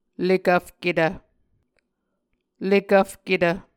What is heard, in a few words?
"lekvfketv", Muscogee